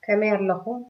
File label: how are you, tigrinya